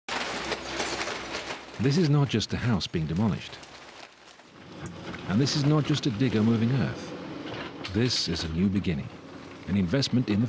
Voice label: Contracting Ad; Mt Lyford